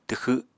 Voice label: "twxw", Apatani